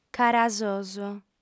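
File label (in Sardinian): "carasos", female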